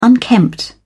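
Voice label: "unkempt", English, British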